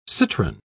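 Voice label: "citron", British English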